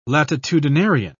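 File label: "latitudinarian", British English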